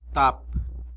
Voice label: "tap", tlacolula; four

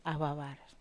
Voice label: "awawar", Wamesa

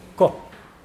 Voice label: bite, Mokilese

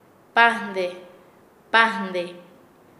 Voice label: Nasa Yuwe; zafarse; paez

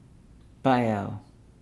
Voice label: "coconut husk", Nukuoro

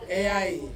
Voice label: "ai", Ansus; to cry

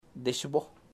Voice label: brothers, chamacoco

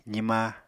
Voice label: "zima", Apatani

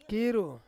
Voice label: "kiru", Ansus; lime

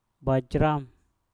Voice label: "bajram", Mpur; cinta

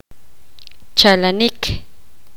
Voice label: poqomam; venir

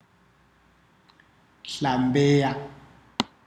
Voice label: "swim", ndau

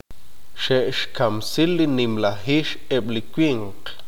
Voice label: "los hombres mataron el jaguar", Q'eqchi'

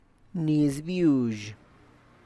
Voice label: "niz biuzh", Tlacochahuaya Zapotec; tiny ears of corn; (Spanish) mazorca pequeñita

